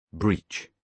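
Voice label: "breach", British English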